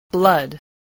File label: "blood", spelling